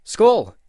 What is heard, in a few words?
"cheers", Norwegian